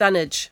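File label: "dunnage", Cromarty Fishertoun dialect; [dUHNidj]; clothes, stuff in general; Word; Clothing; fishertoun dictionary; cromartymuseum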